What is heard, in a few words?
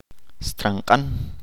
"gringo", Chalchiteko